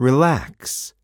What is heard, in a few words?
"relax", spanish